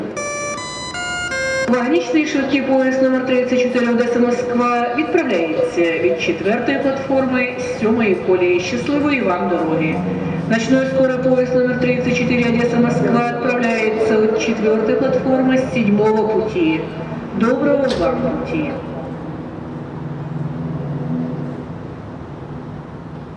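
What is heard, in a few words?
railway station Odessa announcement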